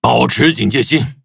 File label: touch, zh hant; Cookie Run